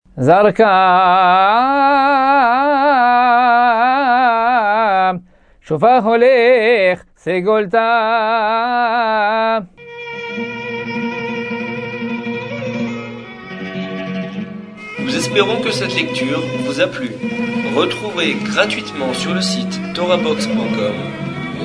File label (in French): apprentissage taamim selon le rite constantinois